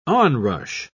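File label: "onrush", British English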